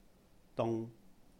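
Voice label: "dung", Cantonese; cold; iced (for drinks); 凍; dung3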